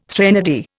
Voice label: "threnody", Indian

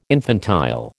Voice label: "infantile", American

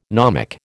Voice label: "gnomic", American

